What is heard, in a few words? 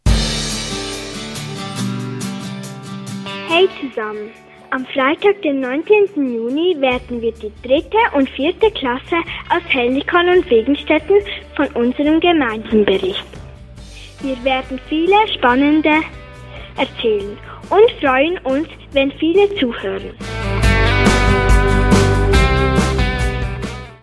Jingle; Hellikon